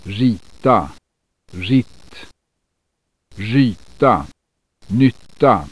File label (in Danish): svensk rita ritt; [ˈri:ta rit: ˈry:ta ˈnyt:a]